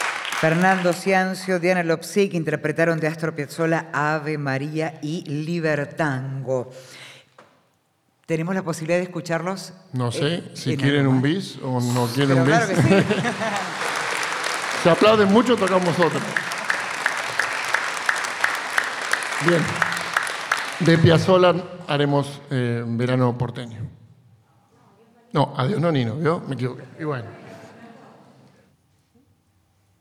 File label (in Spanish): locutora